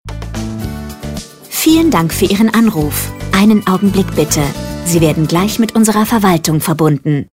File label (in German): Ansage; Telefonansagen Fitnessstudio – Studio – GYM – Sportpark